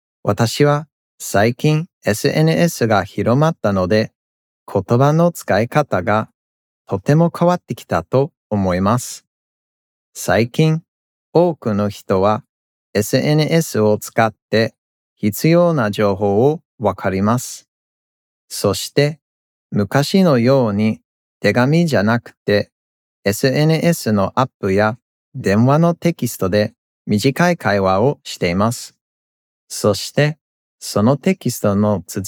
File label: Presentational Speaking